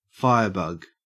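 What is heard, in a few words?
"firebug", Australian English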